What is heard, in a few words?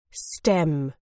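"stem", British English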